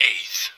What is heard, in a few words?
"eighth", Voice; Ballist